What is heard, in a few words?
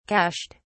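"cached", British English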